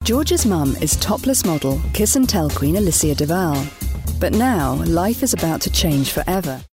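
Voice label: English UK reality TV show; GoLocalise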